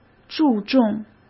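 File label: "注重", Mandarin Chinese; chinese flashcards; hsk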